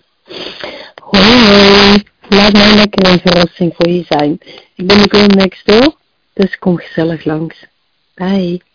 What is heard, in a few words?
Girl next door, telefoonsex; Leeftijd: 56 jaar; Boodschap